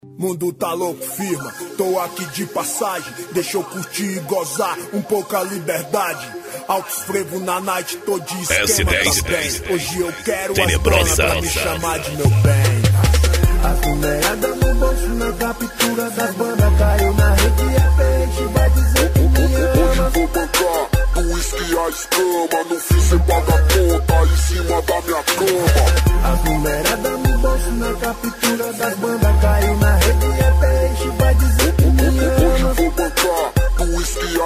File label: rap